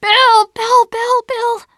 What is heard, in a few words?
teengirl, voice; c6 dlc3 bill dies